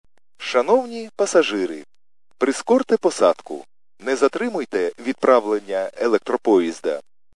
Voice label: khs st male, subway station